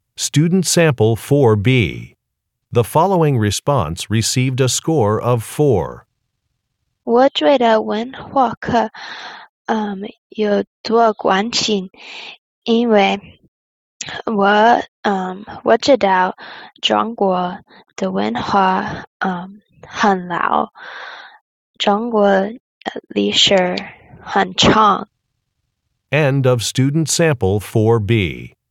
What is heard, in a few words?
chinese conversation